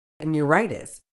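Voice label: "neuritis", British English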